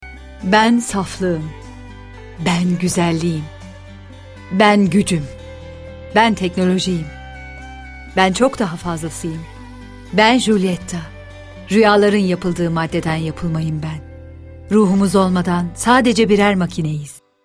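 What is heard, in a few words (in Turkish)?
sicak sakin, seslendirme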